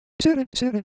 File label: Japanese Texture, Ideo Phones